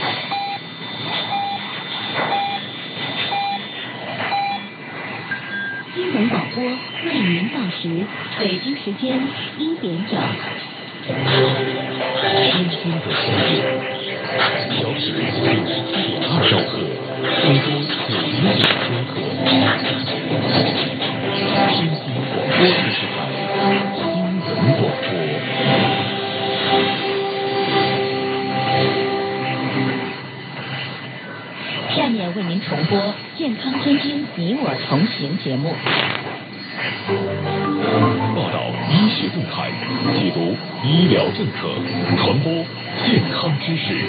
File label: tianjin radio and tv station news radio